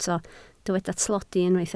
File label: "tlodi", Welsh